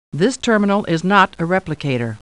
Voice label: Star Trek Computer Voice; StarTrekLCAR